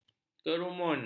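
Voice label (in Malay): "kerumun", Malay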